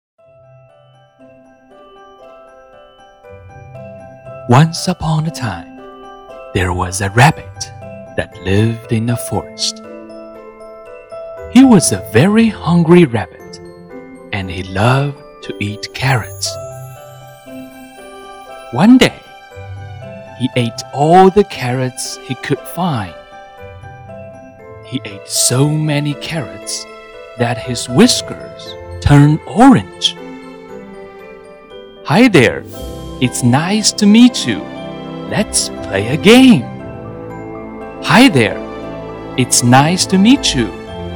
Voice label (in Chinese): 英文故事